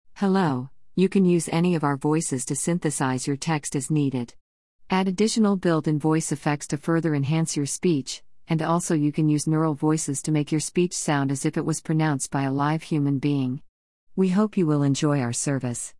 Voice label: Female Neural; Joanna